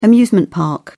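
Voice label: "amusement park", British English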